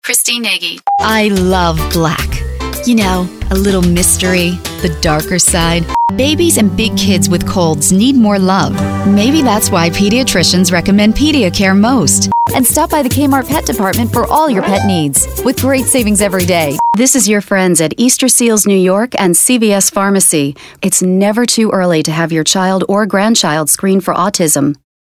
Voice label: Commercial